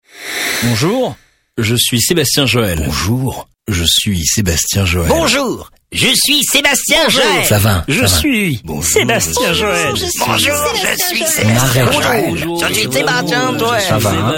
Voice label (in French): Français; casting de voix-off; taurus-studio